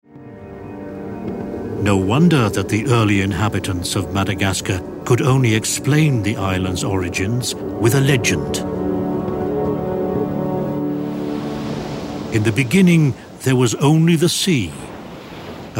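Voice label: British English, Kommentar, Neutral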